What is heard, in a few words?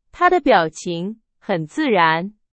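Chinese, example sentence; hsk4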